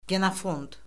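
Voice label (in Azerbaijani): "genofond", Azerbaijani; Orfoqrafiya Lüğəti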